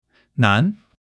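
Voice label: "nan2", Mandarin Chinese; 基础汉语40课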